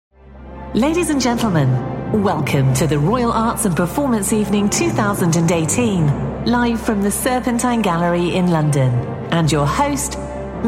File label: voice of god